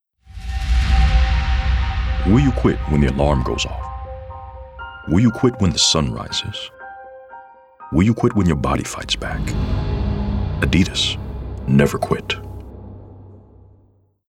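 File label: Determined Edgy, Male Voice Actor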